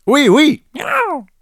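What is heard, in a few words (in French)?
"OUI", French; Wee wee!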